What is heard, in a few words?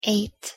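"eight", English pronunciation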